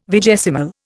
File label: "vigesimal", Indian